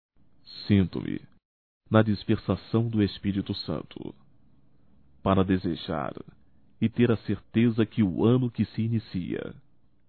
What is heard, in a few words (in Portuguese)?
Ano Novo Neutro Masculino; Natal e ano novo; Telemensagens 2017